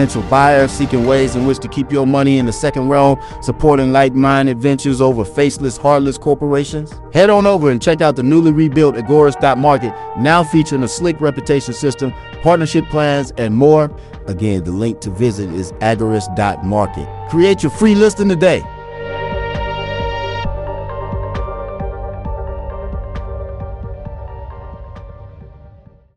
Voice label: radio ad